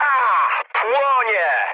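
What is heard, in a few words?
Polish on fire mood low; speech; War Thunder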